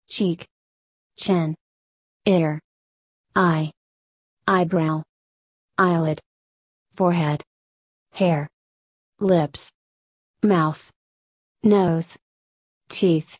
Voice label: usa

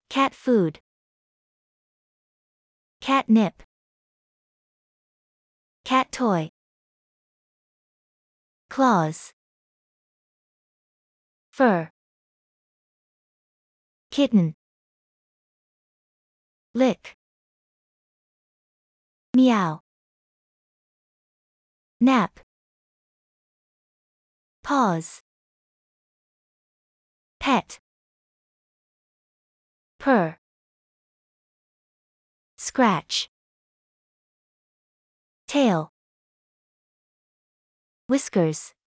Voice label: usa

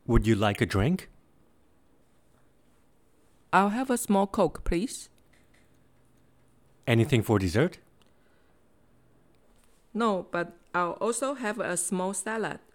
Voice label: basic english dialogs fastfood

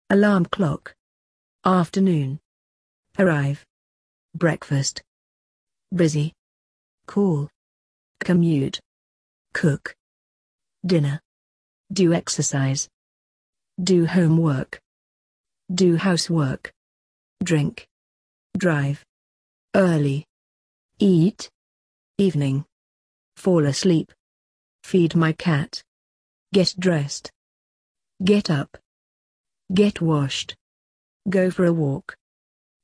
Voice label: daily activities british

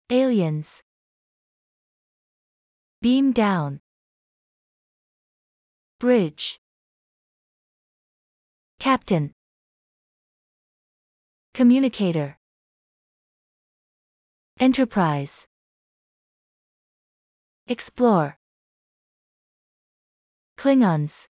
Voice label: usa; startrek

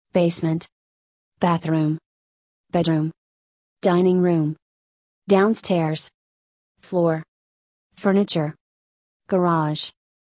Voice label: usa; apartments

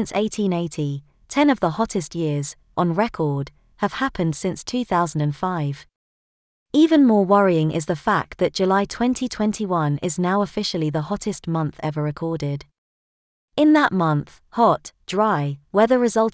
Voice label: uk new audio climate change

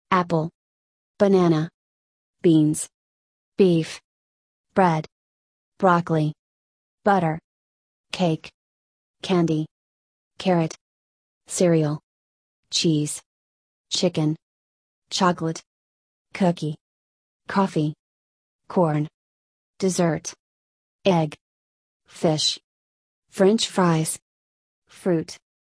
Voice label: usa

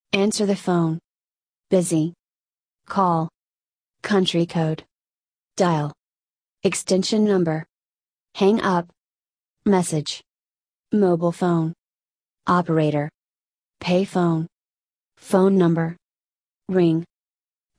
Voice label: telephone, usa

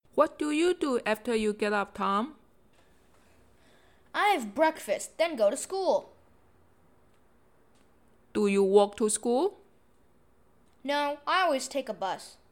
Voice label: morning routine dialog

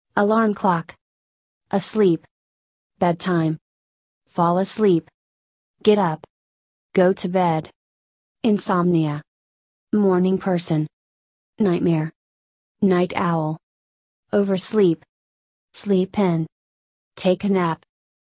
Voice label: sleep, usa

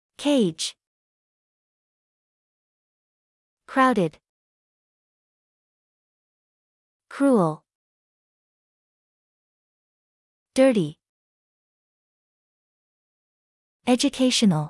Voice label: usa; zoo

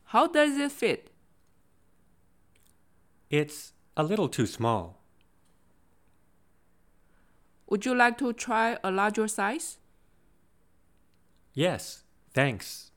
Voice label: basic english dialogs shopping clothing

